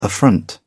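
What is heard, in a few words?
"affront", English, uk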